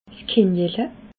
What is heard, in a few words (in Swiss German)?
"kinjele", Alsace